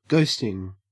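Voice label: "ghosting", Australian English; pronunciations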